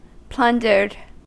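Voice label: "plundered", US English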